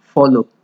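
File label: "follo" Nigerian Pidgin, male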